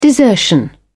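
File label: "desertion", British English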